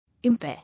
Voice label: "Impair", English